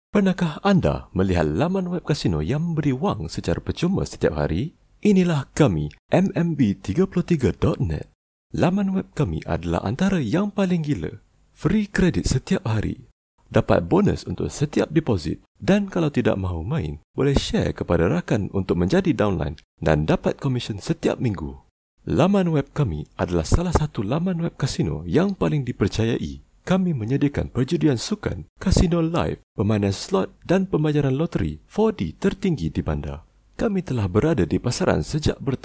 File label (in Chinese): 马来西亚语男, 外语, 小语种